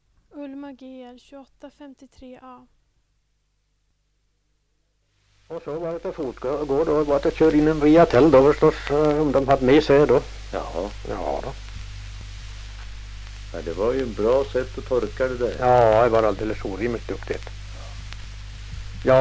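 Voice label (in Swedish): Järbo Gästrikland, dialekter; Svenska sagor; Folkminnen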